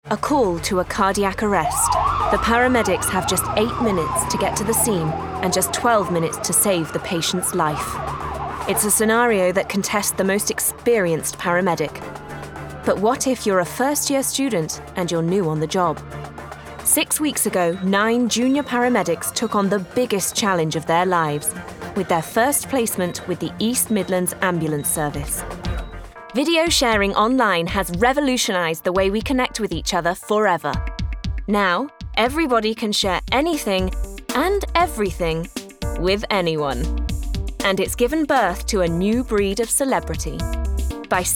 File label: Documentary